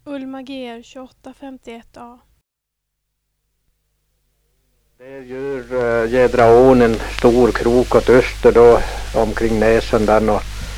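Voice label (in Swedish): Järbo Gästrikland, dialekter; Svenska sagor; Folkminnen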